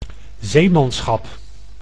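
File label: "zeemanschap", Dutch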